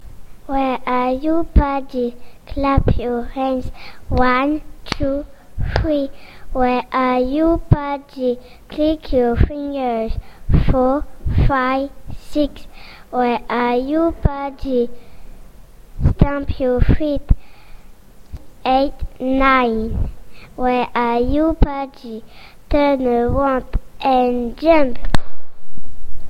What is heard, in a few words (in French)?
anglais